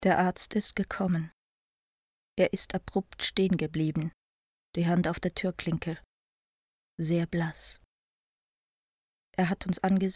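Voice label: german swiss female narration; voice demos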